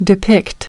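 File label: "depict" US English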